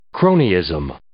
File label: "cronyism", English